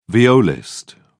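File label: "violist", English, British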